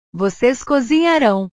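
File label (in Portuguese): "vocês cozinharão", Portuguese; portugues/verbos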